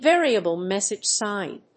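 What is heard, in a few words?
"Variable-message sign", English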